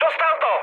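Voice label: aircraft destroyed, Czech, mood high; speech; War Thunder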